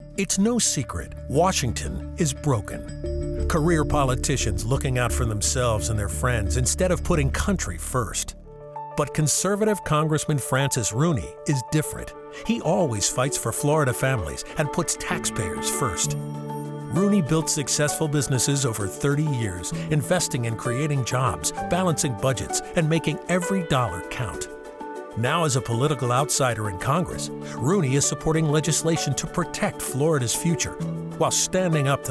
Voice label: Radio; ShakeUp